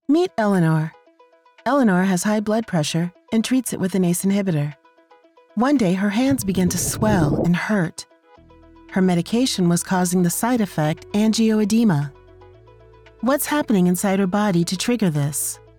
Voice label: Explainer Sample; voiceover